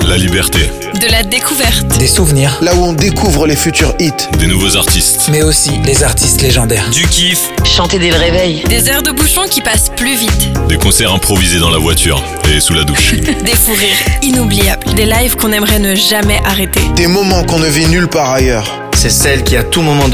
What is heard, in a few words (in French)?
SPOT FETE DE LA RADIO NEUTRE